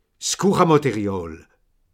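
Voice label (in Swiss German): "kichematerial", Alsatian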